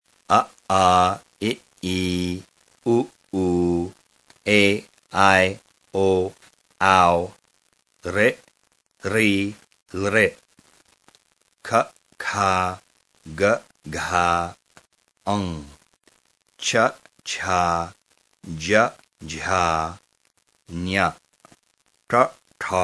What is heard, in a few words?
sanskrit; dictionary